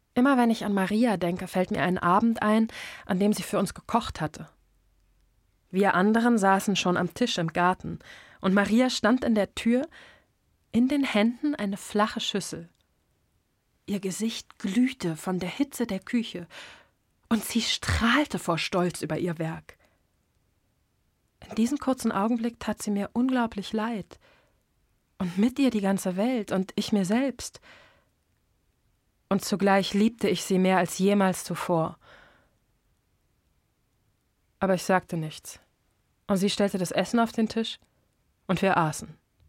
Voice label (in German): Erzählung; Peter Stamm